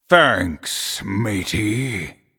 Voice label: Male Night Elf Emote Thanks